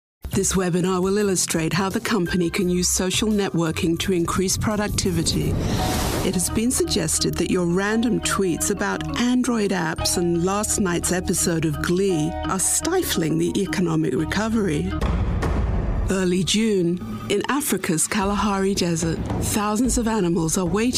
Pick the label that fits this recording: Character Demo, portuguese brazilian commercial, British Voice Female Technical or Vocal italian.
British Voice Female Technical